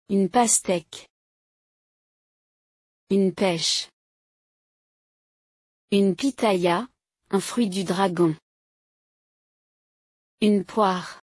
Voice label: french online course fruits pronounciation